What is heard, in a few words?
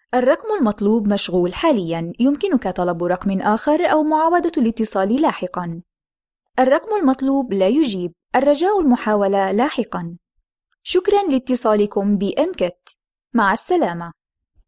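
Arabic IVR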